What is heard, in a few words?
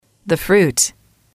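"the fruit", English, female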